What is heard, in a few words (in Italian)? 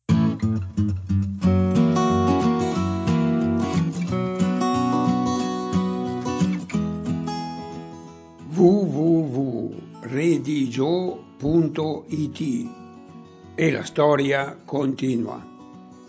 poesie dialetto